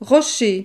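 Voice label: "rocher", French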